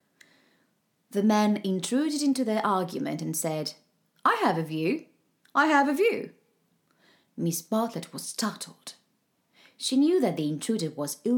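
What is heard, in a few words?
Italian, English accent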